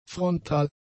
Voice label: "frontal", German; adjectives

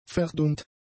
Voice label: "verdummt", German; adjectives

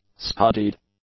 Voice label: "studied", English; adjectives

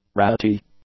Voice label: "ratty", English; adjectives

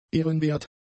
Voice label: "ehrenwert", german; adjectives

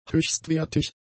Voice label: "höchstwertig", German; adjectives

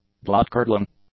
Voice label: "bloodcurdling", English; adjectives

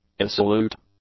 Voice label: "irresolute", english; adjectives

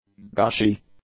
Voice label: "gushy", english; adjectives

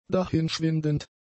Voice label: "dahinschwindend", German; adjectives

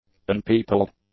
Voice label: "unpeopled", english; adjectives

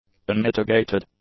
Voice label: "unmitigated", English; adjectives

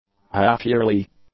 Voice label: "half-yearly", English; adjectives

